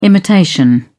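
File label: "imitation", British English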